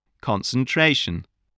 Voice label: "concentration", english